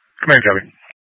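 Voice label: Incident Tac 1, Scanner audio recording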